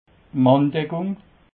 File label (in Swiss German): "manndeckung", alsace